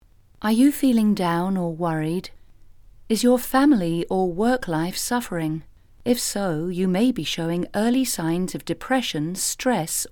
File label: infomercial; unityfm